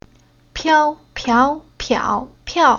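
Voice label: "Piao", Chinese syllable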